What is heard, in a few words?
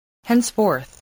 "henceforth", English, spelling